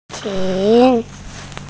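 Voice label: "qun2", Mandarin Chinese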